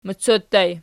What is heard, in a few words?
"mocot", Archi